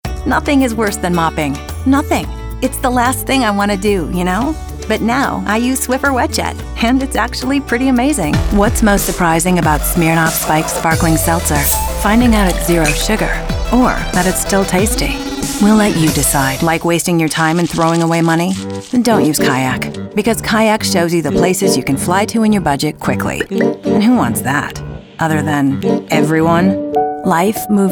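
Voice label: Female Commercial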